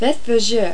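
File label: "faites", French; Virtual Casino: Secret Fantasies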